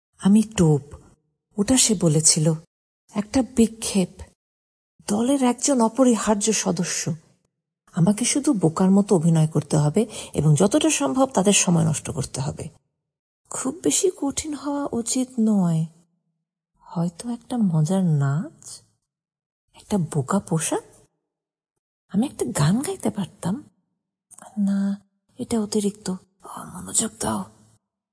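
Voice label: Bangla, female, character voice-over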